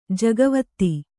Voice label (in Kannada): "ಜಗವತ್ತಿ", kannada